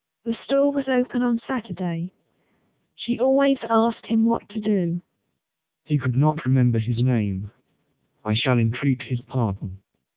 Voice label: eng short, speechsamples, MELPe 1200